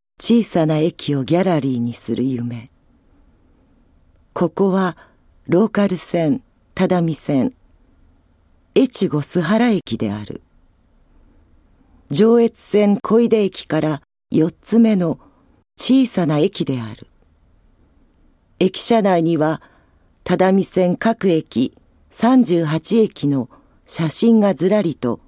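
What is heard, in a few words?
narration, female voice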